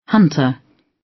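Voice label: "hunter", British; Flora and fauna, agriculture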